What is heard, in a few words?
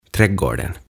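"Trädgården", "Puutarha", Swedish, Finnish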